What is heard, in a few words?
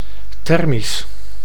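"thermisch", Dutch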